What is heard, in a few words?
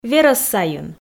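"верӧс сайын", Komi, female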